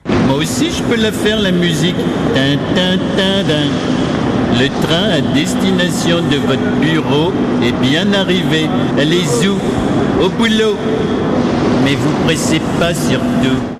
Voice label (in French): voix sncf; homer simpson